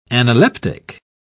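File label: "analeptic", British English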